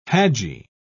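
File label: "hajji", British English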